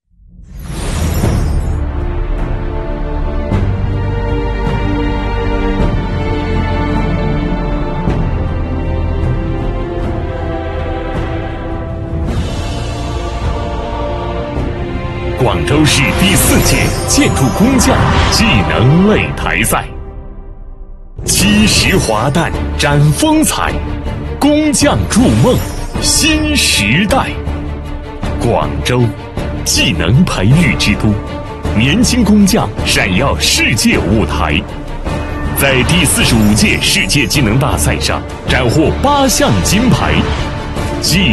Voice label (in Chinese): 男 宣传片; 建筑工匠擂台赛